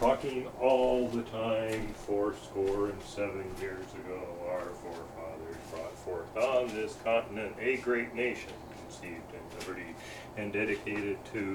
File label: zoom mic vox